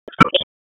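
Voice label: Police District Tactical, p25; wake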